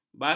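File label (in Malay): "bakik", Malay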